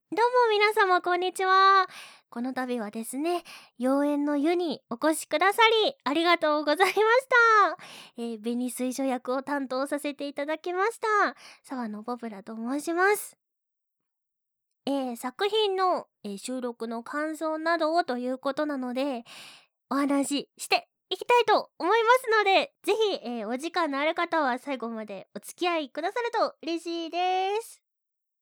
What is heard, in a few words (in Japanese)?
フリートーク, ASMR; 姉役